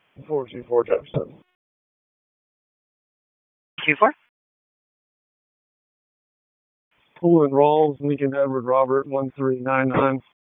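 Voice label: Raleigh Police Southeast District Dispatch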